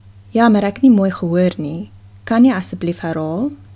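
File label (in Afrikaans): "nie mooi gehoor", Afrikaans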